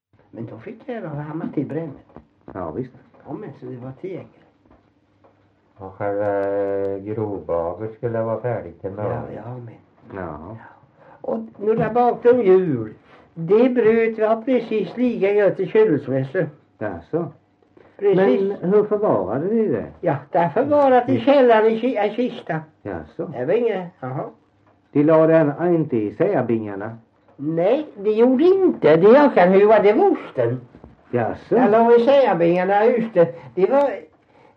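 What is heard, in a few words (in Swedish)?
Värö Halland, dialekter; Folkminnen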